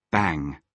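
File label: "bang", British English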